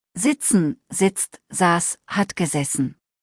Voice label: "sitzen", deutsch; verben kurzliste